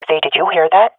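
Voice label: heard sound, swat female; vo; Counter Strike Model Pack; CS:GO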